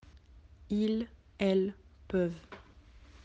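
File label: "pouvoir", French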